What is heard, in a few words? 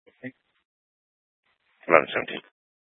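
Troop 2A Dispatch, scanner audio recording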